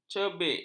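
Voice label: "cebik", Malay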